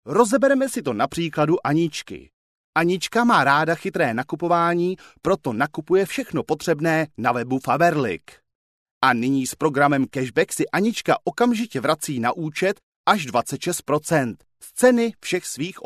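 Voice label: Czech, male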